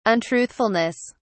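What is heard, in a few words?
"untruthfulness", English, British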